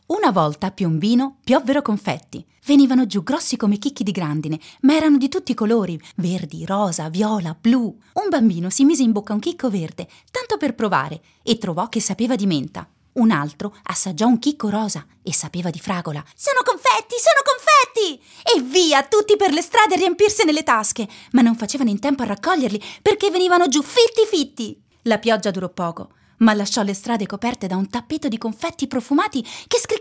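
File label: Italian Kids Narration; Piombino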